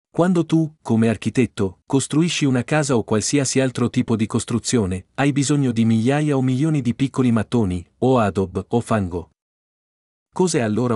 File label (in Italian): Italiano